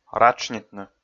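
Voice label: "ратшнитны", Komi, male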